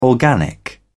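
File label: "organic", British English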